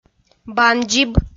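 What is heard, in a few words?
"бандзиб", Komi-Zyrian, female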